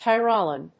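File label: "Tirolean", English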